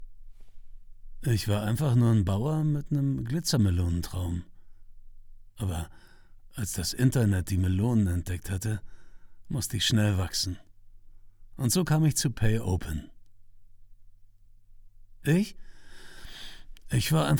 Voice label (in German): pay open, Commercial (Werbung), Scene, German